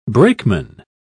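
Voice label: "brakeman", British English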